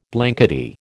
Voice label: "blankety", American